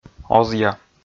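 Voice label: "озъя", Komi, male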